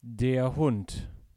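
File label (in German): "Hund", German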